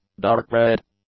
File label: "dark-red", English; adjectives